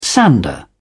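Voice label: "sander", British English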